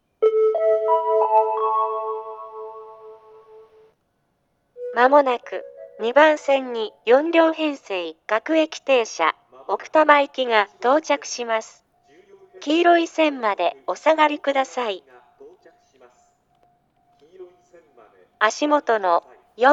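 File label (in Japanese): 接近放送, 旭型放送(女声), 青梅線, 4・6両編成の電車では乗車口案内が付帯していました。; 4両奥多摩行; 牛浜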